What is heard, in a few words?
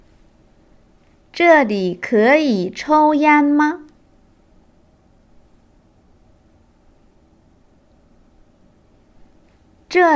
Test of Chinese Proficiency